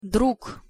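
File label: "drug", Russian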